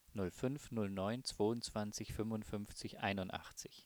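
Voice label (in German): ziffern, German, male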